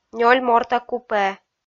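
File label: "нёль морта купе", Komi, female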